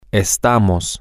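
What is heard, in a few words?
Spanish, we are temporary, male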